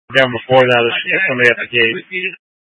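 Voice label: Incident Tac 3, Scanner audio recording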